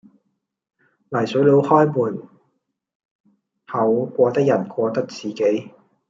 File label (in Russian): Голоса, Гонконгский